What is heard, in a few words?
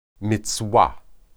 "mizwah", Hebrew